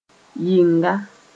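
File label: "yɩnga", Mossi; outside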